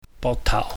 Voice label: "boktauh", Cantonese